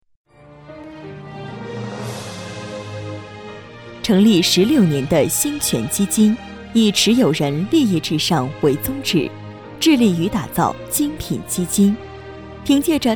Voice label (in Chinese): 女 宣传片类 颁奖词